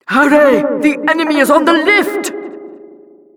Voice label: rocket warnings, announcer; "Hurry! The enemy is on the lift!"; Administratorin

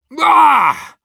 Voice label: Demoman negative vocalization, ru

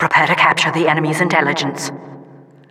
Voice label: announcer capture intel

